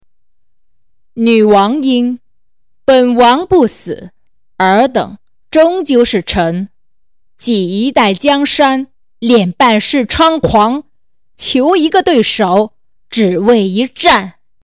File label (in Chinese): 女王音